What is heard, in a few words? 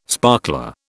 "sparkler", British